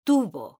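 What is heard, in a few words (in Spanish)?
"TUBO", Spanish, female; mekanta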